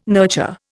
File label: "nurture", Indian